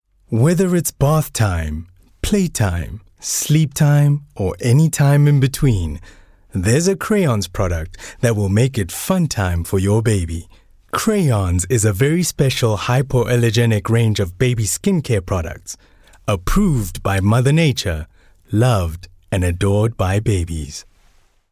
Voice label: English Bright

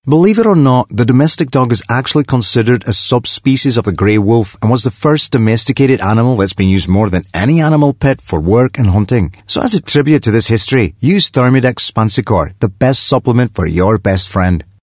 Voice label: Accent Scottish

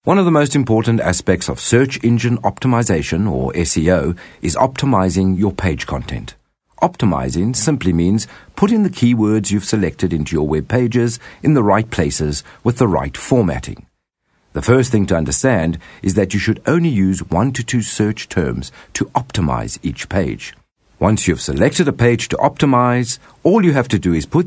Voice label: English Corporate

